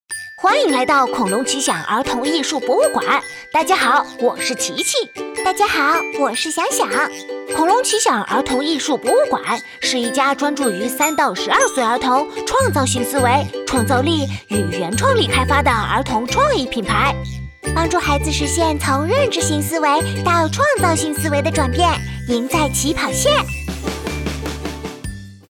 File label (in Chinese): 破罐男女童